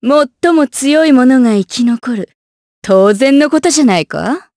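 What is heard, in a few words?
vox dia Japanese; Nicky; kingsraid; jp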